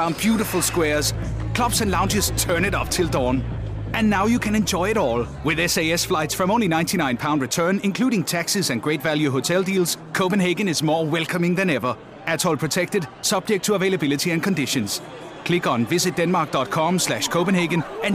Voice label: male danish voice over talent english

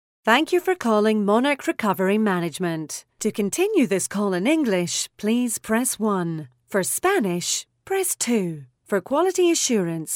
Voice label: female scottish voice over narrative

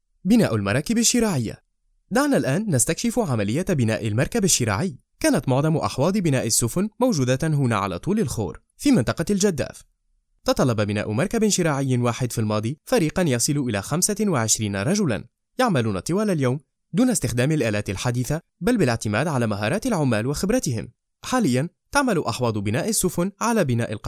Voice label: male arabic voice over narrative

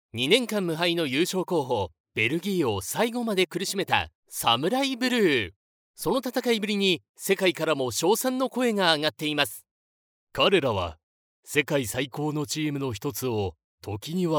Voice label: male japanese voice over talent upbeat

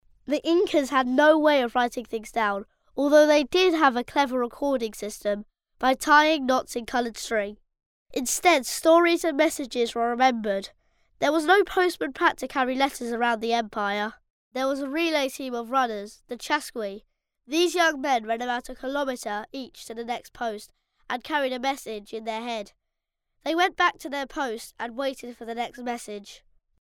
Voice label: boy british voice over narrative; english british

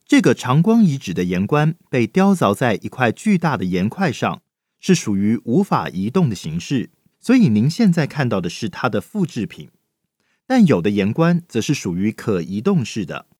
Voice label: male hokkien voice over talent narrative

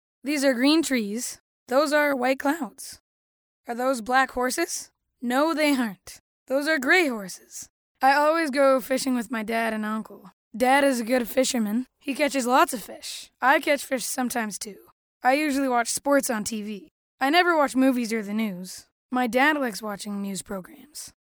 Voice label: boy canadian voice over narrative

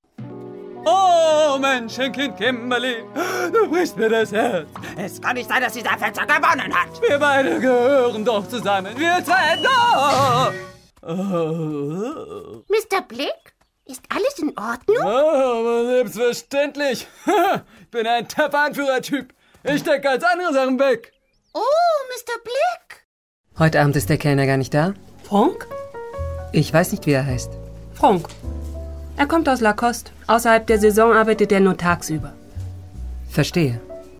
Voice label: female german voice over character

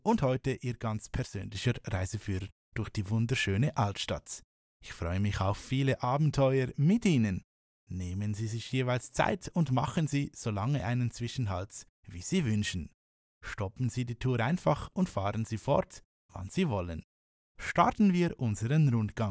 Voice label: male german voice over talent narrative

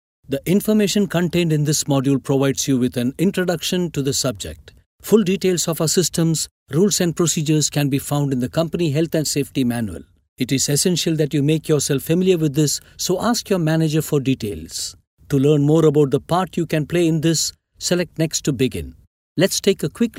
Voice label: male indian voice over narrative

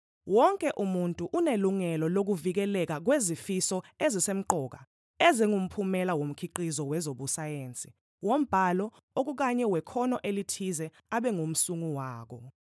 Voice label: female zulu voice over narrative

